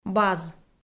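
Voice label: "баз", Komi-Zyrian, female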